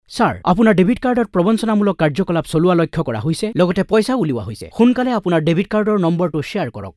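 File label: Assamese, male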